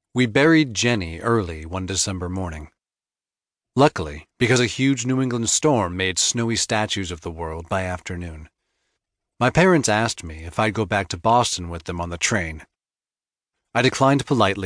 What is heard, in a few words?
Voice Actor; Olivers Story